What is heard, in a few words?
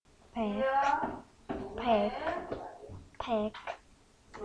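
"pick", spoken word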